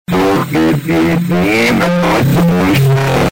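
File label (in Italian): "so che dici", Italian; So che dici e n'avrai: fu con, lì, zio!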